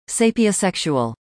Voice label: Sapiosexual Pronunciation US